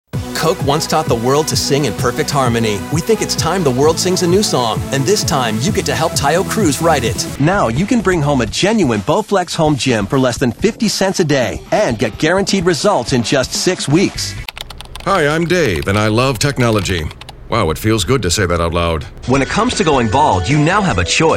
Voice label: Commercial Voice